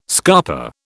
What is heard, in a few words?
"scarper", British